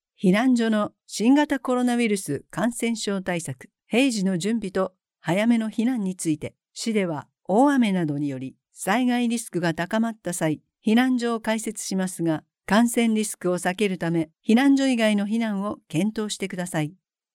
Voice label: female japanese voice over narrative